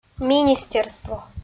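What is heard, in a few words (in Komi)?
"министерство", Komi, female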